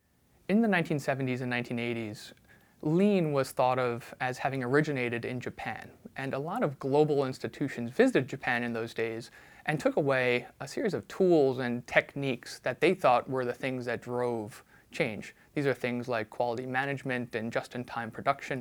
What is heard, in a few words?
Male Voice Us English